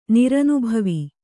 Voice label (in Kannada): "ನಿರನುಭವಿ", Kannada